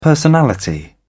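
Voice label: "personality", British English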